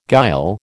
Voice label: "guile", American